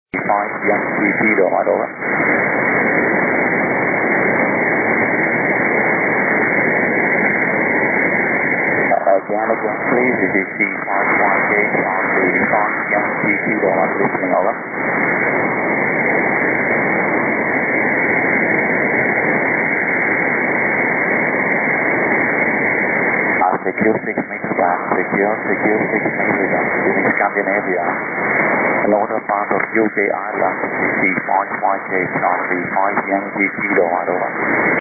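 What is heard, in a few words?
6m single sideband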